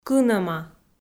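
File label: "кынӧма", Komi, female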